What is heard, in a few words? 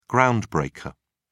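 "groundbreaker", British English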